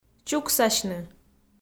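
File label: "чуксасьны", Komi, female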